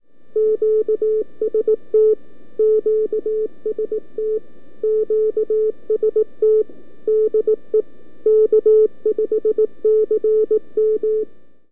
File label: 80m Callup